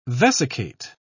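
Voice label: "vesicate", British English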